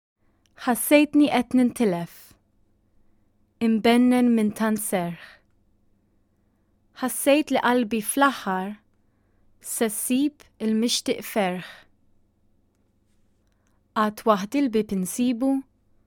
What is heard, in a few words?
Maltese